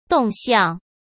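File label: "动向", Mandarin; chinese-flashcards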